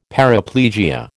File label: "paraplegia", American